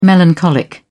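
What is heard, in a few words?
"melancholic", British English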